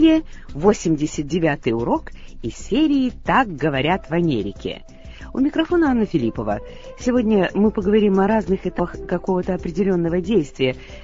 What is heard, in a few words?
russian english